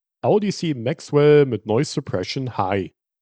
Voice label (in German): noise suppression high, Gaming-Headset; Audeze Maxwell